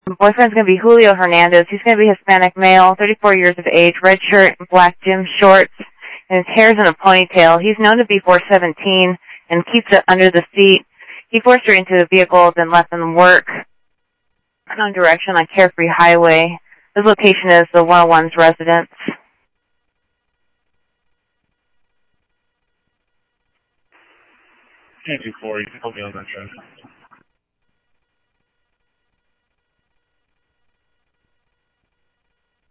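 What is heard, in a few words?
Sheriff West County, scanner audio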